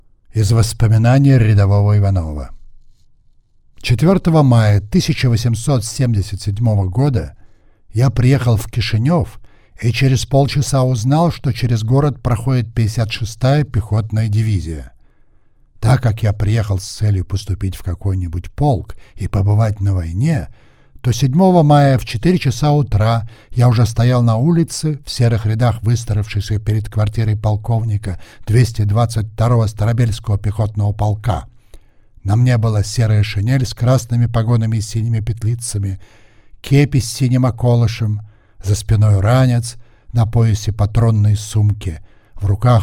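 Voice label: Russian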